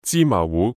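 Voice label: "芝麻糊", Cantonese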